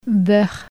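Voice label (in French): "beurre" French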